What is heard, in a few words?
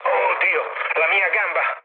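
Italian damaged pilot mood low, speech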